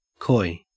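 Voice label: "coy", Australian English; pronunciations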